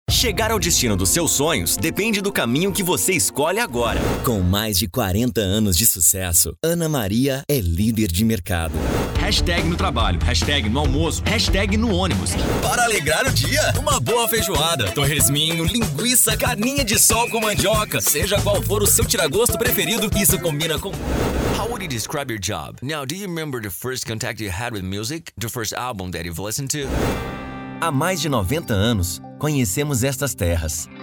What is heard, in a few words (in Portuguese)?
LOCUCAO PUBLICITARIA TRILINGUE